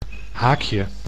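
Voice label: "haakje", Dutch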